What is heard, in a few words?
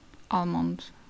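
"almond", Polish, female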